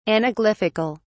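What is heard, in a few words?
"anaglyphical", British English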